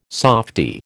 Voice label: "softie", American